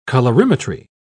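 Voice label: "colorimetry", British English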